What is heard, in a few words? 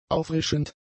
"auffrischend", German; adjectives